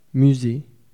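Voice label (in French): "musee", French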